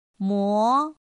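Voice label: "mó", Chinese; pinyin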